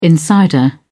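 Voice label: "insider", British English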